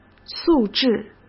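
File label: "素质", Mandarin Chinese; chinese flashcards; hsk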